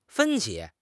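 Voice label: "分歧", Mandarin Chinese; HSK; HSK6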